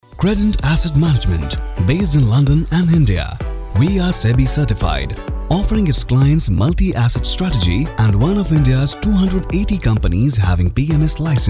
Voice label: English corporate